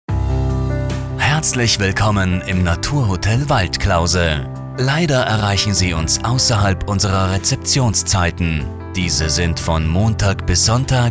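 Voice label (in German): Hotel Nachtansage, Telefonanlagen; Hotel Waldklause Laengenfeld; telefonansagen hotels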